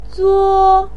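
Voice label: "zuo1", Mandarin Chinese, pinyin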